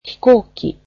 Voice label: airplane, japanese

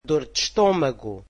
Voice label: portuguese stomach ache

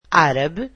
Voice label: "Arabic", portuguese

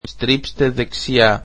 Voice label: greek Turn right

